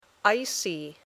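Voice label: "icy", English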